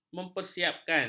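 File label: "mempersiapkan", Malay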